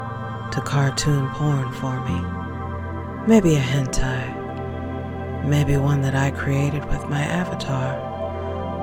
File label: Gooning Masturbation Trance